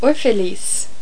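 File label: "orphelins", French; Virtual Casino: Secret Fantasies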